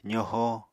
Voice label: "zoho", Apatani